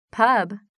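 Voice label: "pub", English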